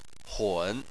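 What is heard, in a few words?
"hun", Chinese, third tone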